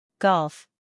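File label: "Golf", American English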